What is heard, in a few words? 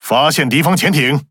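Speech Detection Enemy Sub, Chinese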